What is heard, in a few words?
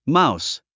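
"mouse", English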